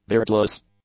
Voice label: "beardless", English; adjectives